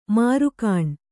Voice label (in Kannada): "ಮೆರುಗೆಣ್ಣೆ", Kannada